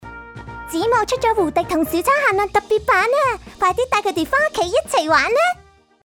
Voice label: 女童; 子母奶

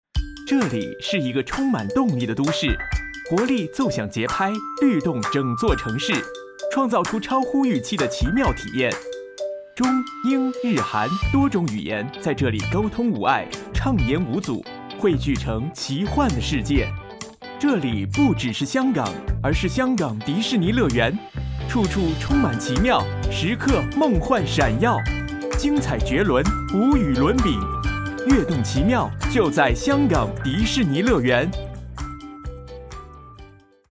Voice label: 普通話男聲; Disney

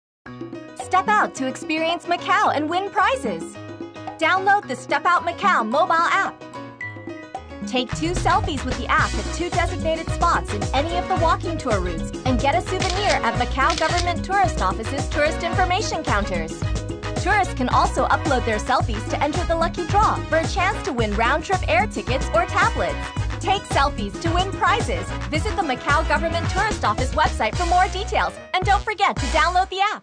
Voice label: 英語女聲 TVC; Macau

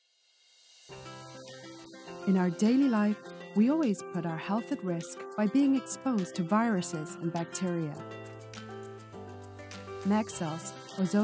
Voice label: 英語女聲; Maxell-UK

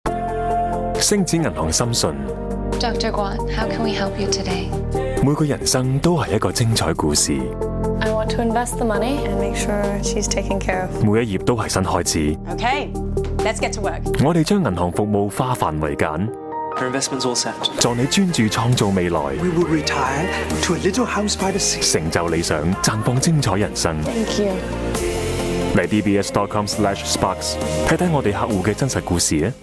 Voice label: 粵語男聲; 星展銀行

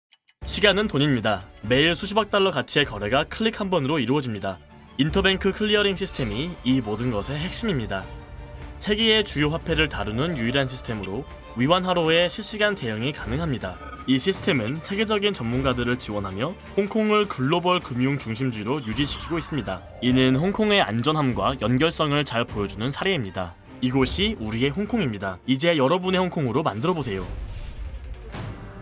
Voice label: 韓語男聲; BrandHK Korean Brain

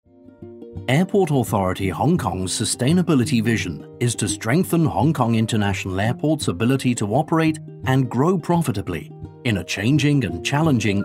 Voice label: 英語男聲; AAHK

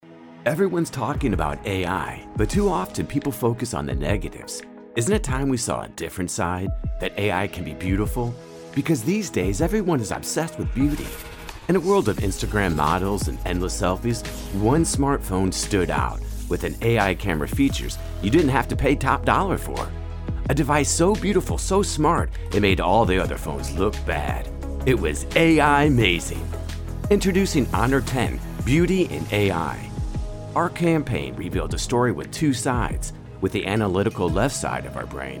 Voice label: 英語男聲; Honor

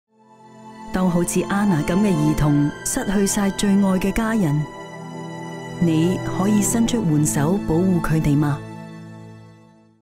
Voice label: 粵語女聲 慈善

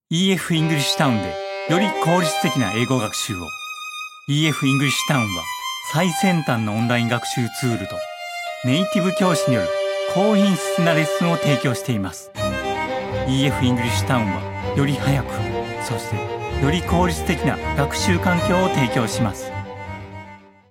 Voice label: 日語男聲 English 旁白; EF

